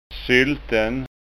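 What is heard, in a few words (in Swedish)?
"sylten", Scanian dialect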